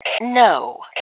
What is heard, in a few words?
Global No, Female; T2 Female 02